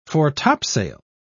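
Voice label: "fore-topsail", en, uk British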